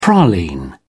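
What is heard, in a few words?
"praline", English, British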